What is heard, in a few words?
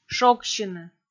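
"шогсьыны", Komi, female; kpv audio dict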